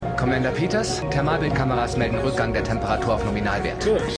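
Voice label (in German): männlich Besatzungsmitglied; Glasnost; For All Mankind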